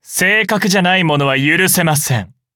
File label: upgrade, ja; cookie run